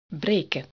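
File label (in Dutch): "breken", Dutch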